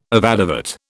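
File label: "avadavat", British English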